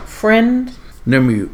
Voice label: "Friend", Male